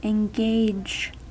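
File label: "engage", US English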